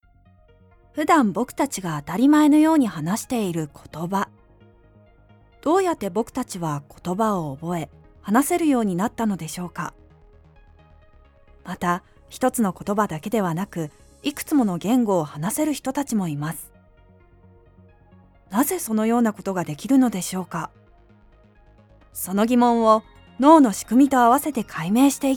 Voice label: Educational